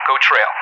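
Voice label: "go trail", English; DCS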